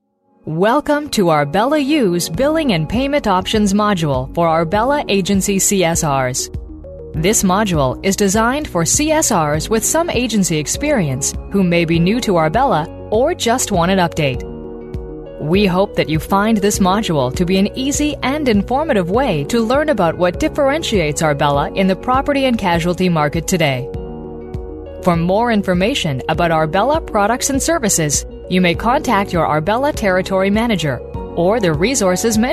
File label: Canadian female, corporate